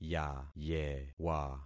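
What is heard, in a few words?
"yayewa", concatenated syllables; Affixing experiment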